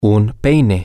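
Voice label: Spanish, male; a Comb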